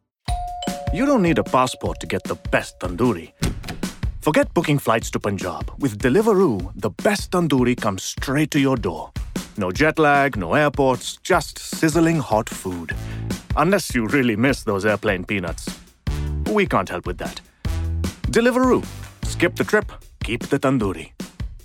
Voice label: Indian Accent